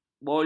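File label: "bolu", Malay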